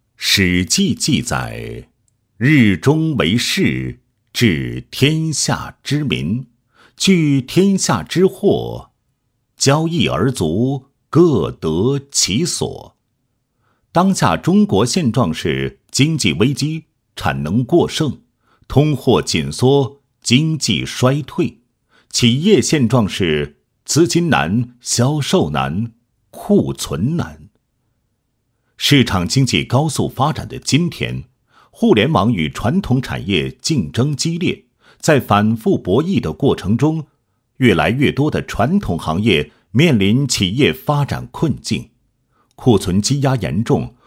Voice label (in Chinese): 男, 解说; 一起换货网